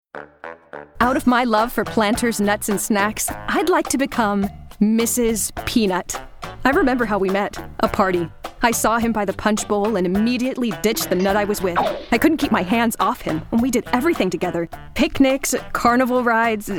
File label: Commercial